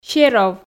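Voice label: "серов", Komi, female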